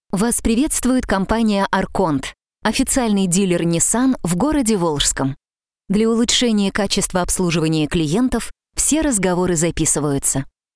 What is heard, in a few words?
IVR